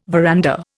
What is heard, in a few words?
"veranda", Indian